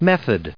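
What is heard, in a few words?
"method" English, American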